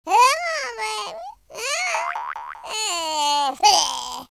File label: taunt, speech, Gangsta